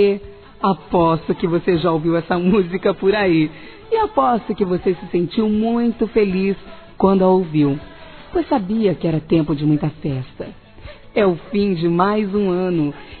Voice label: Ano Novo PARA Criança Feminino; Telemensagens; Natal e Ano novo

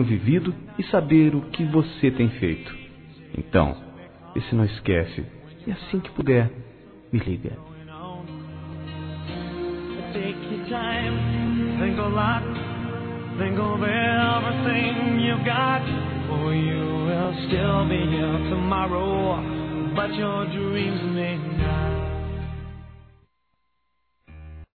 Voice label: SAUDADE AMIZ., Amizade Masc; Telemensagens

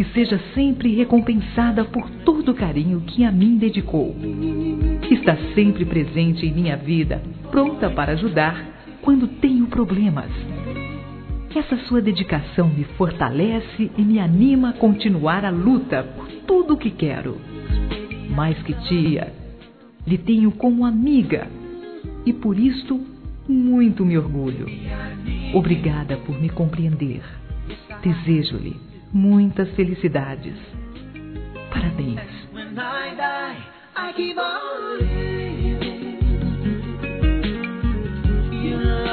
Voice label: Aniversário Tia Feminino; Aniversários; Aniv Familiar

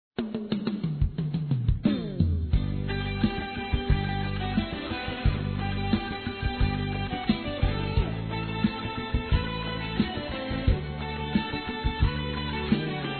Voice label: Mensagem Para Mãe Feminino; Telemensagens; Telemensagens 2017

